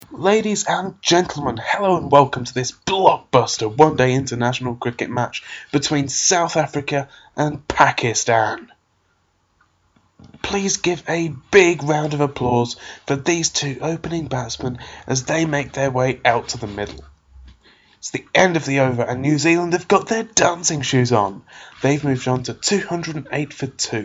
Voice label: twenty20 commentator